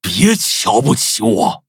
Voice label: touch, zh hant; cookie run